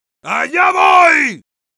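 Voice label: Heavy battlecry, Spanish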